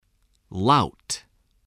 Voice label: "lout", spelling